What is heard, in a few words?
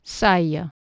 "saege", Anglo Saxon